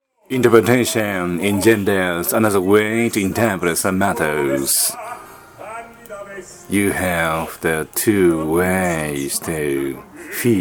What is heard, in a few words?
recitative-style lecture